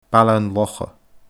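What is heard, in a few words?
"Baile an Locha", Scottish Gaelic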